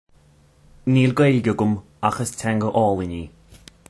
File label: Irish